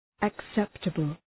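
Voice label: "acceptable", English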